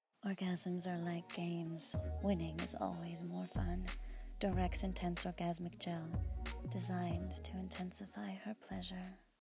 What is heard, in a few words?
Intimate Commercial Orgasmic Gel